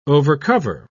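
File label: "overcover", British English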